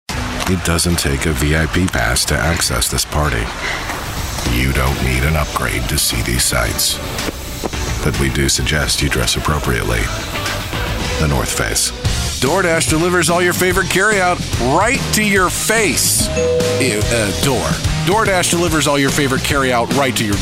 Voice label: Commercial